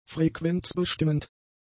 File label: "frequenzbestimmend", German; adjectives